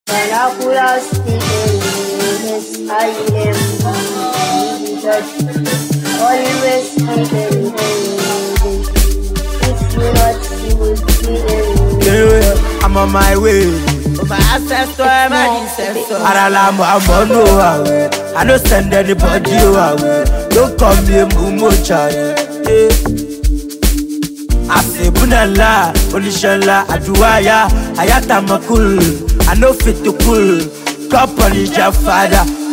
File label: vernacular speaking